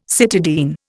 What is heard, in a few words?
"cytidine", Indian